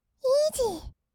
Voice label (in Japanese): "イージー", kawaii voice; ASMR; 色々なワンショット